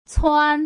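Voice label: "cuan", Putonghua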